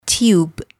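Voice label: "tube", English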